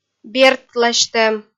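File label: "бертласьтӧм", Komi, female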